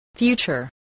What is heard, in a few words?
"future", voice, English